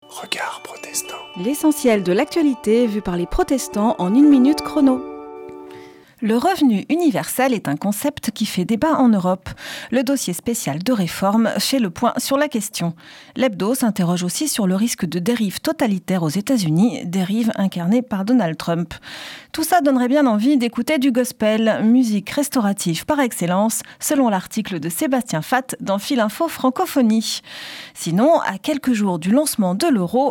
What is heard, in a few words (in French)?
Spot radio; Regards protestants